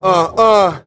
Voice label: "uh uh", Voice

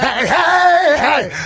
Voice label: "hey hey hey", voice; ooh ahh

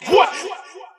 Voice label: "what", Voice

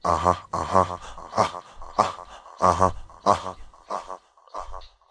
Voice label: "uh huh uh huh uh uh uh huh uh huh", looped; ooh ahh; uh um

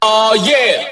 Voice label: "ah yeah", voice

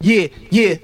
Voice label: "yeah yeah", voice

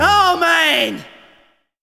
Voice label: "oh man", voice

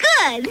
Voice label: "good" girl, expletives

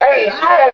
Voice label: "oh no", Voice

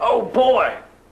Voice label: "oh boy", male; ooh ahh

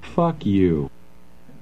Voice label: "fuck you", male; expletives

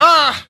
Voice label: "uh" rage; ooh ahh; uh um

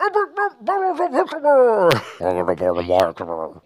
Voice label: wabba yabba habba ber borr, Voice gibbrish

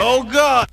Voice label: "oh god", expletives, g-rated swears; Voice

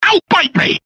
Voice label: "oh baby", male; Voice

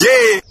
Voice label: "yeah", group of men

